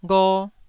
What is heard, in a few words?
"gho1", Taiwanese syllable